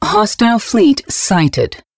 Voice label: advisor notification hostile fleet detected, pacifist; Stellaris; ethics